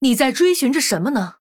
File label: Female Human Greetings; zh